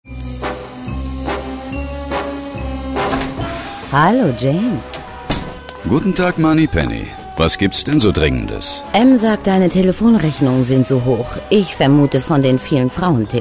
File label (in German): Werbung; Teldafax